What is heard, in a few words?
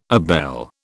"abele", British